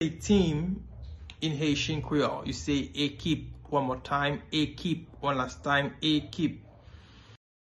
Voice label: Team in Haitian Creole Ekip pronunciation